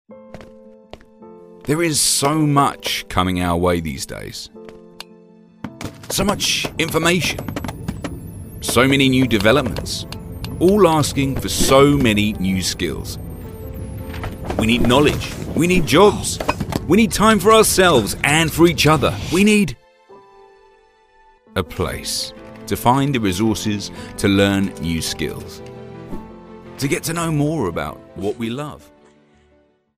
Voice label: Explainer Video Heartfelt

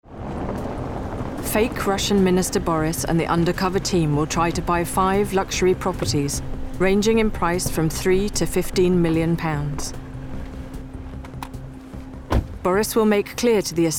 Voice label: documentary

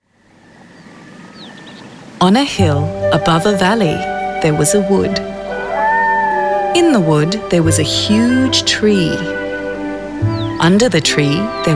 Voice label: Childrens Book

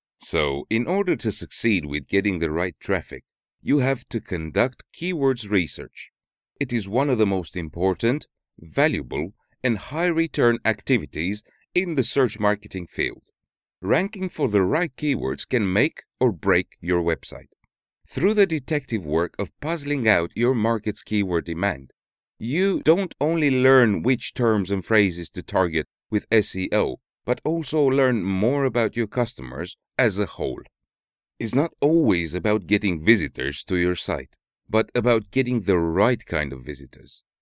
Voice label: E-learning sample

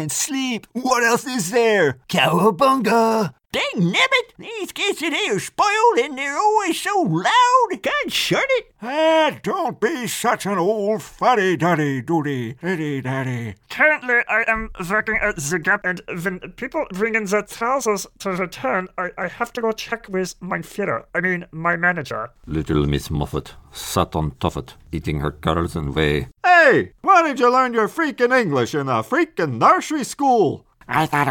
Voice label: Character voice dry

